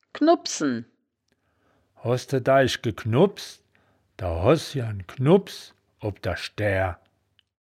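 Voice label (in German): "knubbsen", wittlicher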